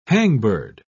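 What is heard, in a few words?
"hangbird", British English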